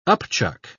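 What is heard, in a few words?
"upchuck", British English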